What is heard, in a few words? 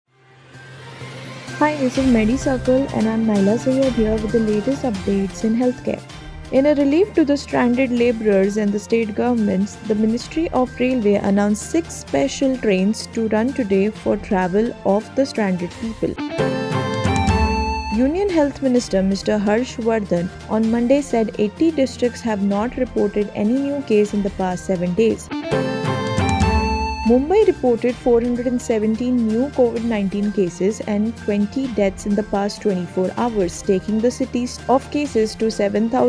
english news